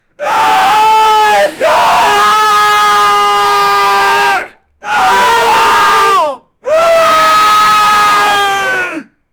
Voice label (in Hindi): ek uncle chila rahe hai, anger